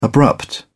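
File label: "abrupt", English, British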